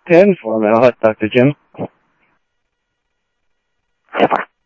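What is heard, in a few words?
Sheriff West County, scanner audio trunk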